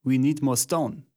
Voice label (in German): german voice; wyrmsun